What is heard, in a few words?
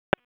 Police Tac, p25; Raleigh; Southeast District Tac 2; wake